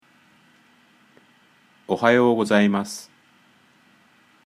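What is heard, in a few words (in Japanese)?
あいさつ, japonais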